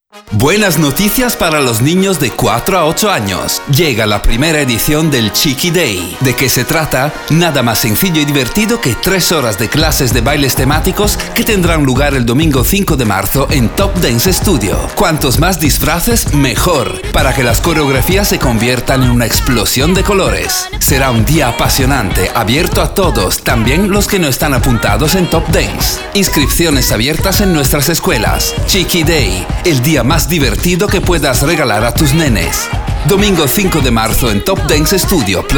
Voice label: Italian